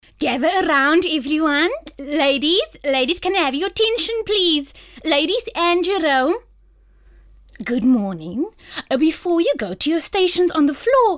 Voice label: accents